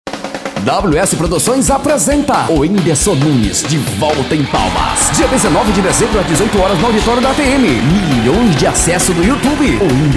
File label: spot